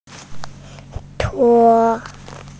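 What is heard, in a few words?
"che1", Chinese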